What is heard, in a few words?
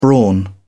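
"brawn", British English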